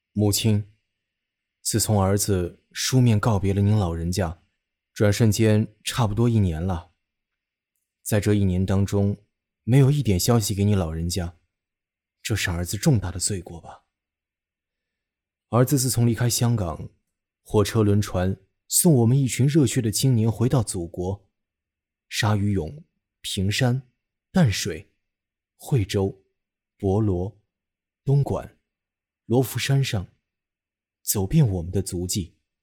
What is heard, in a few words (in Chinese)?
男 角色 家书